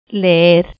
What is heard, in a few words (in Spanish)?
hiato "leer", Cuba, Pinar del Río femenina; spansk; fonetica